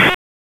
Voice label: Police Northeast District Tac; Raleigh; Tac 1; wake; p25

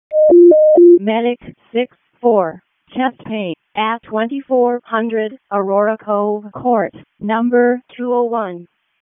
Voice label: EMS ALERT, p25; wake

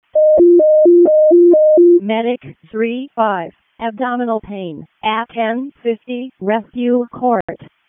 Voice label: EMS ALERT, p25

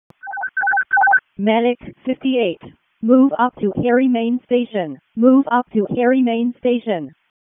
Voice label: EMS ALERT, p25

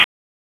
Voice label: Police Tac, p25; Raleigh; Northeast District Tac 1; wake

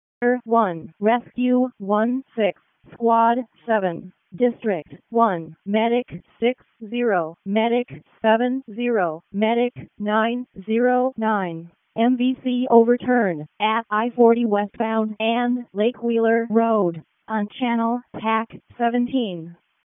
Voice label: EMS ALERT; wake

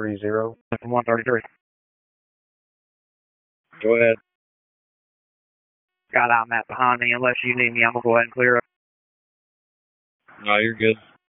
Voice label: PS TAC, p25; wake p25

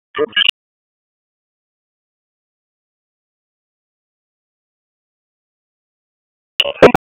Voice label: Police Northeast District Tac 1, P25; Raleigh; wake

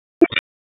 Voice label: Police Northwest District Tac 1; Raleigh; wake

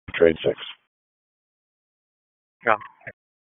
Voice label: RFD HQ DISP, P25 recordings

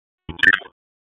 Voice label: Police Northwest District Tac 1; Raleigh; wake

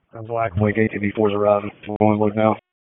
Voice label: FIRE OPS, p25; wake

